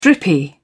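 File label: "drippy", British English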